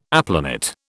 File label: "aplanat", British